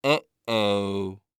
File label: Cartoon Voice "Uh-Oh"